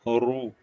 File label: short voyels arabic alphabet